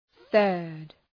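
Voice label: "third", English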